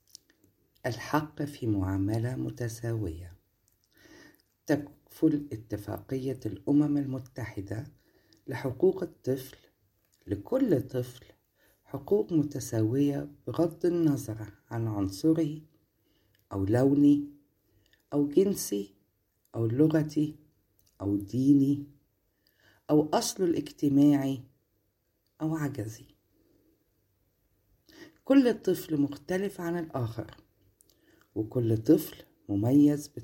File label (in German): Recht auf Gleichbehandlung arabisch